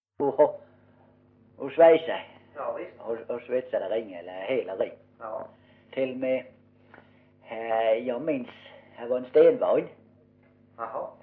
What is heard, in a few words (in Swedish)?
Osby, dialekter; Folkminnen; Svenska sagor